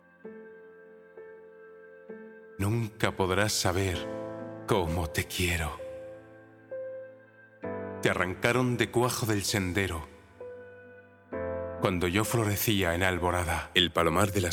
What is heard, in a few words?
spanish narration